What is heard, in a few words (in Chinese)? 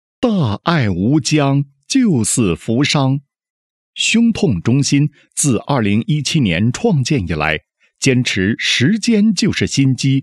医院录音 胸痛中心宣传片